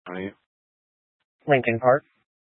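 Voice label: Incident Tactical 3, Scanner audio recording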